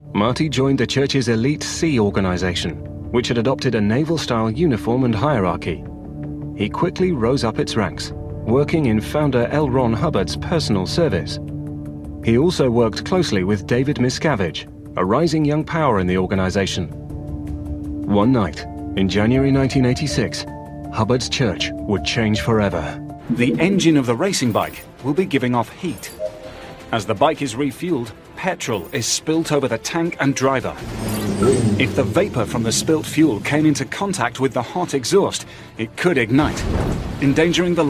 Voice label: Narration